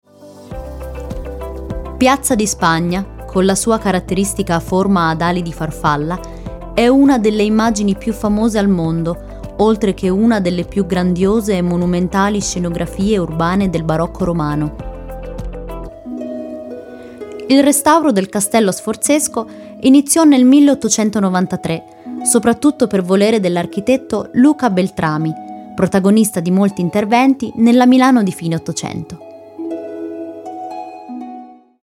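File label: Italian, Documentary; GoLocalise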